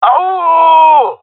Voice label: round start, male; player vo; Counter Strike Model Pack; CS:GO